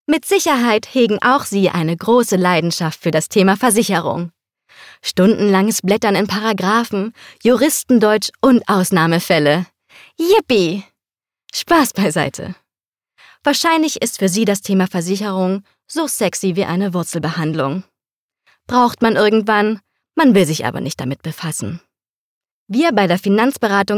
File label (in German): Erklaervideo, Sprecherin